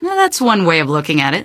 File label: answer, female; Garry's Mod; hl2